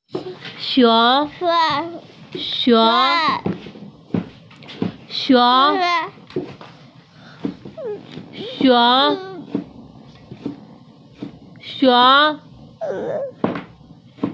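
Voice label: "xiong2", Mandarin Chinese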